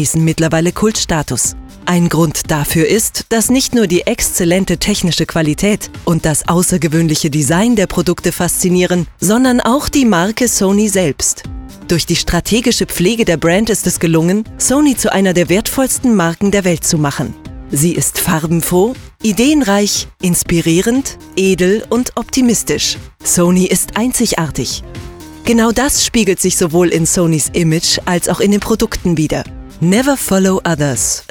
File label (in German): werbespot, deutsch frauen; sprecher